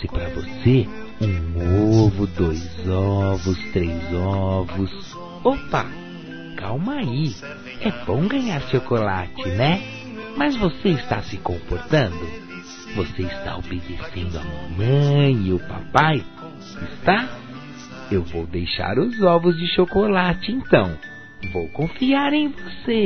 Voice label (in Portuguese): Coelhinho da Páscoa Masculino; Telemensagens